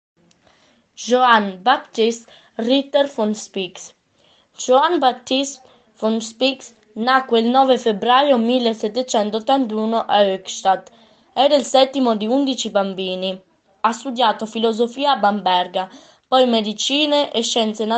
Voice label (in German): italienisch; Das Leben unseres Namensgeber in verschiedenen Sprachen und Dialekten.